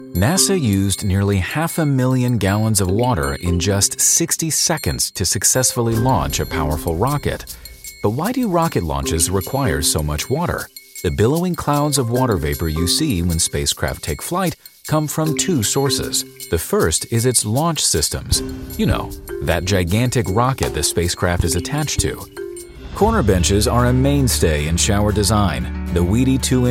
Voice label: American English Voiceover